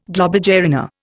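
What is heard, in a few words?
"globular", Indian; Dictionary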